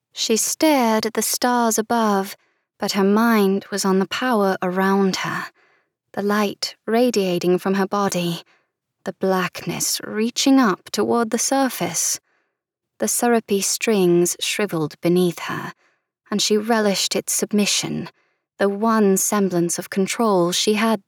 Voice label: British Accent Fantasy